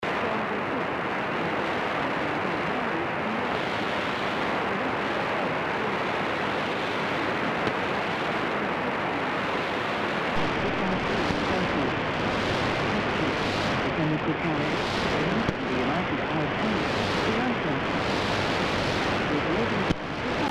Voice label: 15575khz; BBC; Sony; Eton

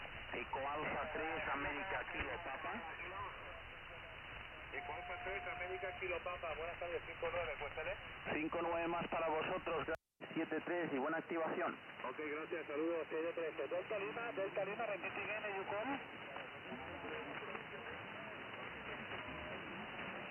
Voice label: 7150khz LSB; Hamradio 40m Band; ColibriNANO

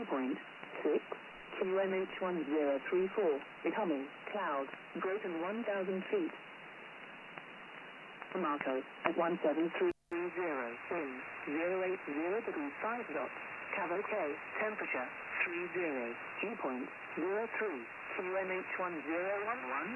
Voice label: RAF Volmet, USB; Reuter Pocket

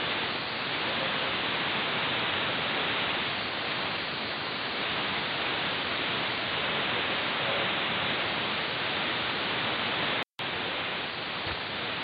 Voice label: 17730khz AM; Radio Habana

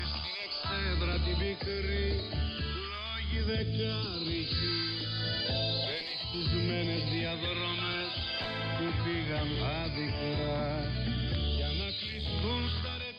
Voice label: 9420khz; G33DDC; Voice of Greece; Afedri

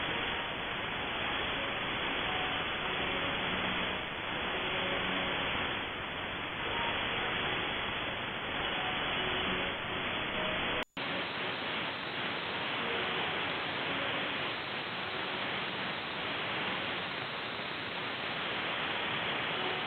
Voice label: 21610khz AM; Radio Espania

